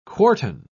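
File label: "quartan", English, British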